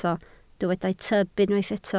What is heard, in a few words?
"tyb", Welsh